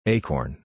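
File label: "acorn", English; どんぐり; éikɔɚn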